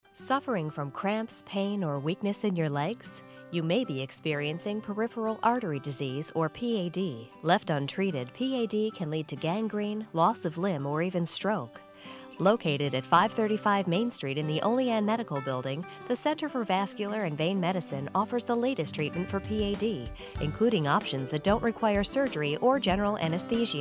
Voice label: Radio Ad; Cardiology Group